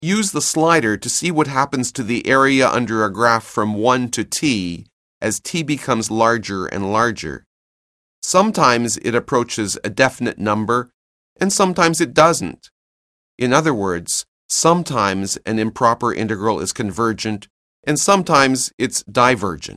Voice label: narration; CALCULUS 7E; cengage